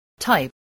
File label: "type", English spelling voice